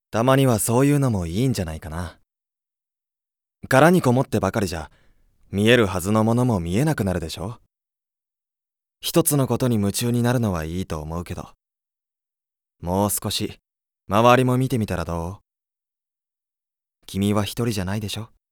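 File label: voice sample, young man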